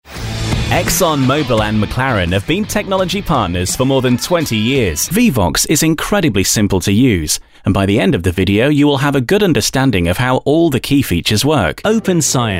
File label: Quick Corporate Showreel